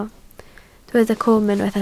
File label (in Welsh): "cwm", Welsh